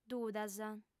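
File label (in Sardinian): "dudas", female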